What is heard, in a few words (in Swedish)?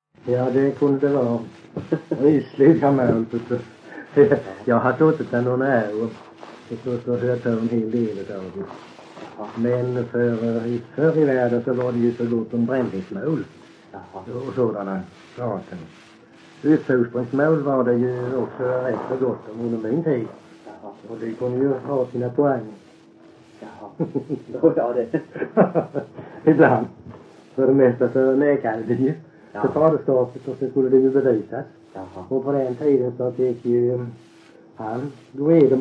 Öljehult BLEK, dialekter; Folkminnen; Svenska sagor